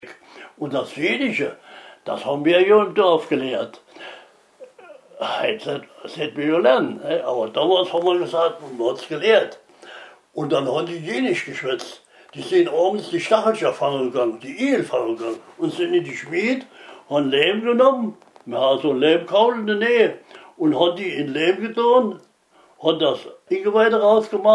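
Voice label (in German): igelfaenger jenischen, O-Ton Hunsrück; Simmern/Hunsrück; Igelfänger Jenischen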